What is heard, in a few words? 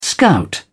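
"scout", British English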